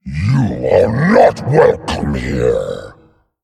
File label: Male Dragon Play